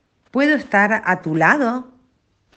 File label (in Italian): Spagnolo